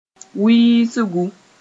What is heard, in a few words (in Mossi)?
"wiisgu", Moore